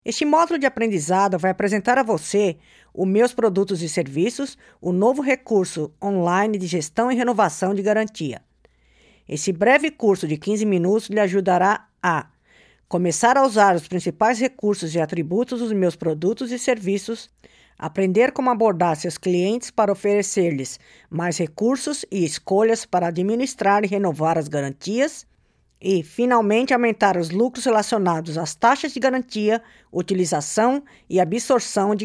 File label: Learning Objectives, Potuguese; delltranslations